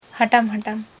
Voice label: whisper, Ho